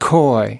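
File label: "coy", US pronunciation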